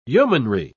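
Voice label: "yeomanry", British English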